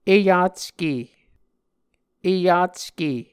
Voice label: "eyacke", muscogee